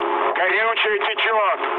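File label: tank damaged, mood med, Russian; War Thunder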